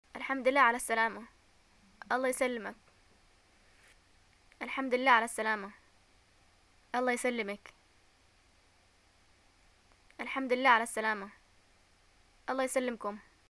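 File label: "greeting arrival", Yemeni Arabic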